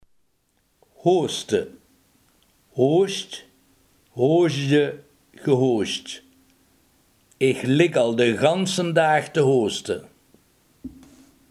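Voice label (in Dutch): hoesten, Limburgse gesproken woordenboeken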